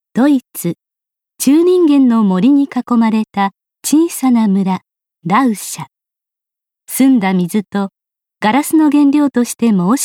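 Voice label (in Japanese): 紀行ナレーション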